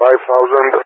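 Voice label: "500", English speech; Allied Flight; Numbers; DCS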